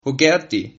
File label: how are you, Sylt Frisian